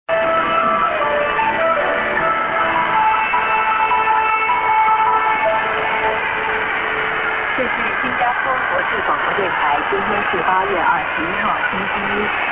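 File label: St. music->ID+SKJ(women)->music->ID(women), Int. Mandarin; Int. Indonesian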